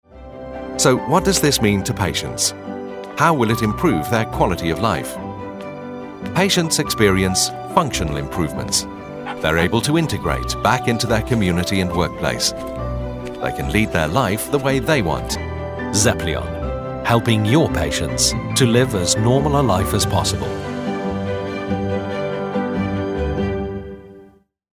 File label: english british corporate videos